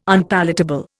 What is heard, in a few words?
"Unpalatable", Indian